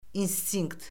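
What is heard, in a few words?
"instinkt", Azerbaijani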